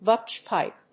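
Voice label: "PVC pipe", English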